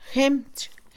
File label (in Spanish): pesado, Mixe, Mujer; Percepción; INALI